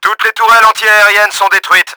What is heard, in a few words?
FLAK, french; Battle Engine Aquila; XBOX